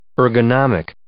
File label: "ergonomic", English